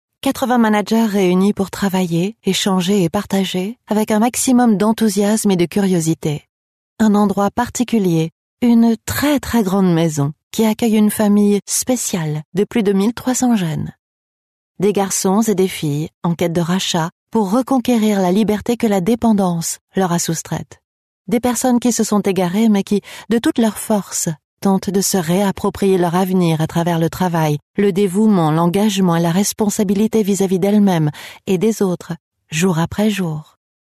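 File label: French, female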